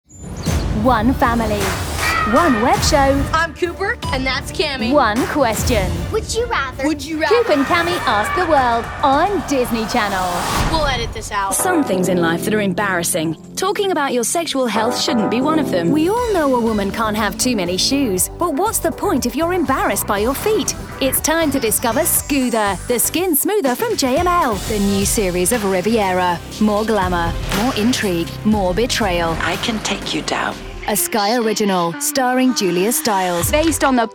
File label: commercial showreel